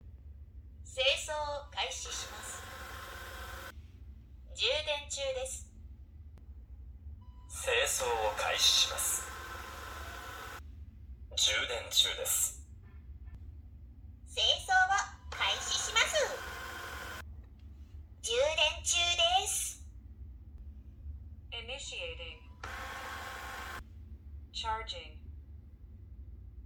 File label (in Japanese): アプリ ボイス変更